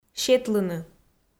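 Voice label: "сетлыны", Komi, female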